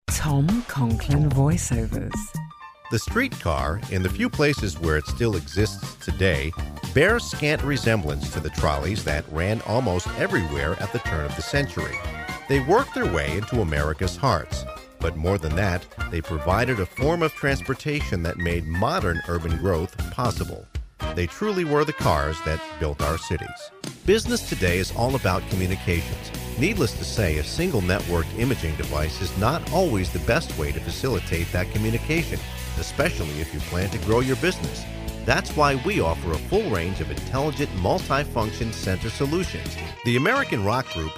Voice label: narration demo sample

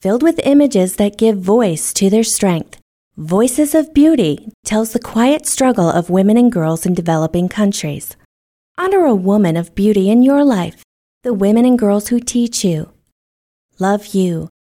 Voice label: voiceover work

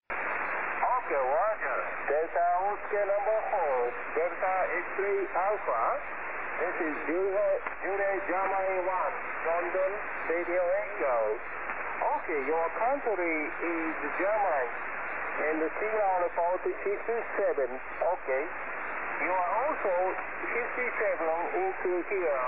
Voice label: 15m ssb via long path

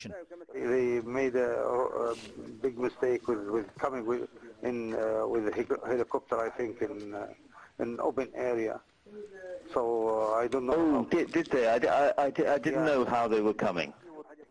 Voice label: snippet of telephone conversation; uk s ambassador to libya richard norther

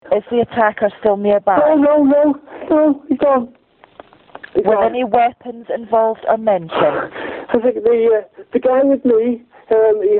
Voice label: 999 call; melsonby murder trail

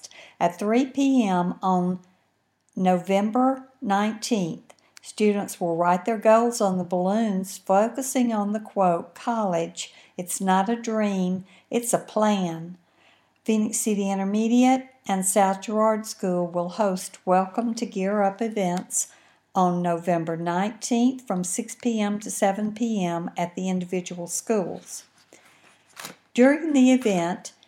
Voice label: kick off announcement; gear up

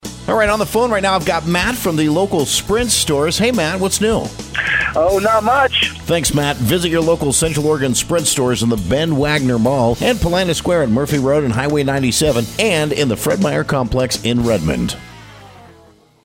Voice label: really short commercial; sprint

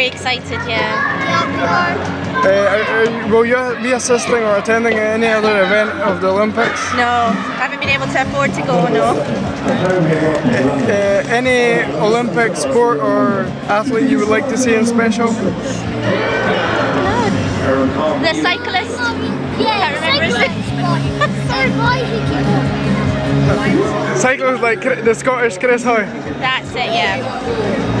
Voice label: interview at castle with mom son

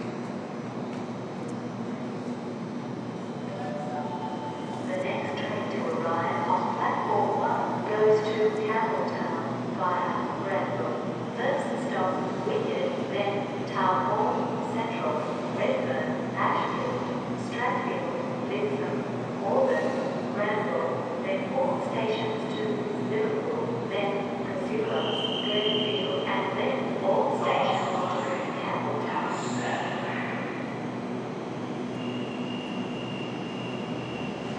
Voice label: Circular Quay station announcement

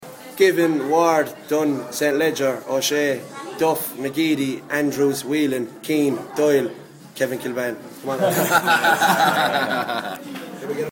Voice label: commentator s prep Irish pronunciation

